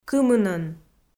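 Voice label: "кымынӧн", Komi, female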